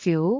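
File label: "fiu2", Cantonese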